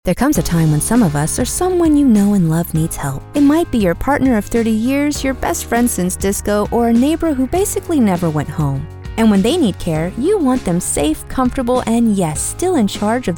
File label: Radio Commercial; Home Instead